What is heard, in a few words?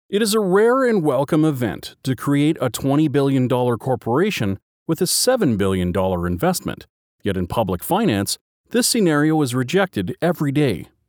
Canadian Political Explainer